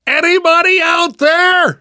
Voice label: call for rescue, survivor voice; namvet; Left 4 Dead 2; left4dead2 dlc2